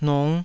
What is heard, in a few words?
"nung5", taiwanese